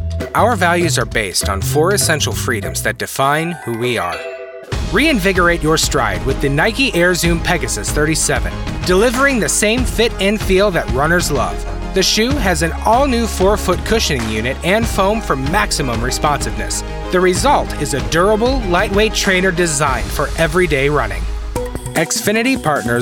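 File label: Corporate, male voice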